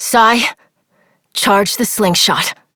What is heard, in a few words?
Operator Voice; New War; Torpedo